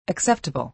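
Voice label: "acceptable", American English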